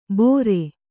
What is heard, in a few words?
"ಬೋರೆ", kannada